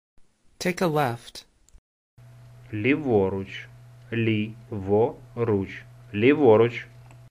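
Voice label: Ukrainian Take a left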